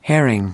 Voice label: "herring", us